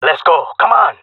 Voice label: round losing, jungle male; player vo; Counter Strike Model Pack; CS:GO